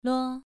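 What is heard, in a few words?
"luo", Mandarin Chinese syllable, second tone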